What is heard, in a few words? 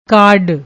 "card", Punjabi; ਕਾਰਡ